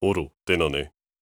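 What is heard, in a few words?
question short, voice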